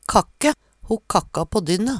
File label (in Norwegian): kakke, Numedalsmål